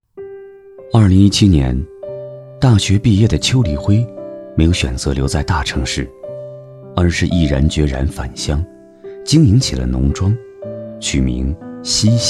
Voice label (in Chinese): 男, 人物专题, 磁性讲述